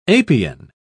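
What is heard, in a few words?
"apian", British English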